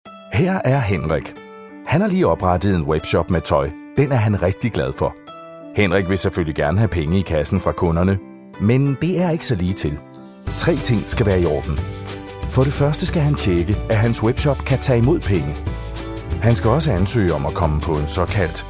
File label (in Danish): Dansk, speakere